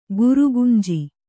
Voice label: "ಗುರುಗಂಜಿ", kannada

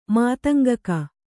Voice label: "ಮಾತಂಗಕ", Kannada

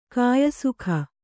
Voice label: "ಕಾಯಸುಖ", Kannada; kāyasukha; the physical comfort; carnal pleasure.

